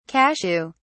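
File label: "cashew (s)", British English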